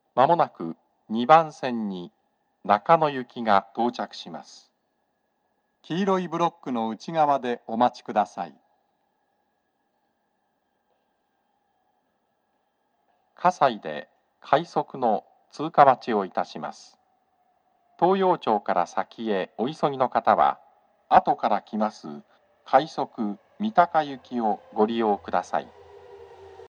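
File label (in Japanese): 接近放送, 男声, 放送は「各駅停車 中野行」です。葛西で、快速 三鷹行きの通過待ちを致します。; TOA円型での収録です。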